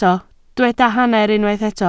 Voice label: "hanner", Welsh

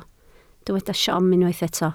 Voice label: "siom", Welsh

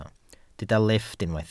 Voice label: "lifft", Welsh

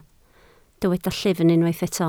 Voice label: "llyfn", Welsh

